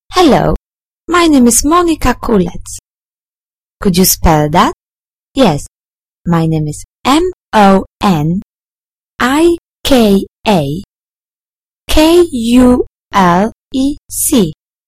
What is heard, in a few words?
dialog